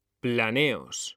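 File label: "planeos", Spanish, male; mekanta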